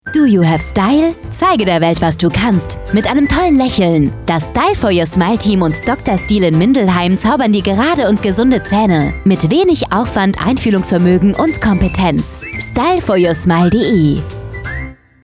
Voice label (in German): spot